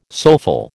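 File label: "soulful", American English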